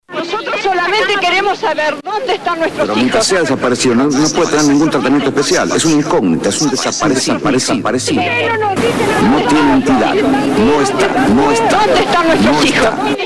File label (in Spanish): spot golpe